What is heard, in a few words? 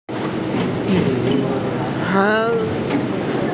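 "zhi3hui1", Mandarin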